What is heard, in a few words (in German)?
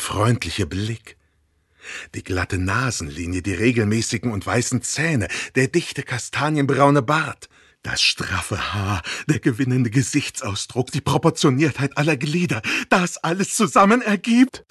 Der Mann eine komische Beschreibung